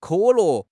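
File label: "ke3 le4", Chinese; HSK; HSK3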